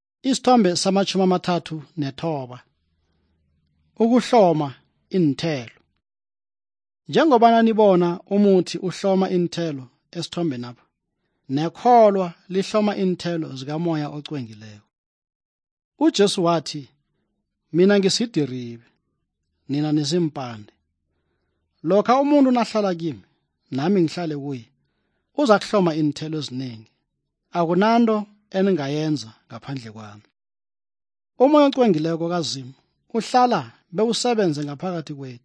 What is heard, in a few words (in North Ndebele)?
good news ndebele southern, drama